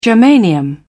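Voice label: "germanium", British English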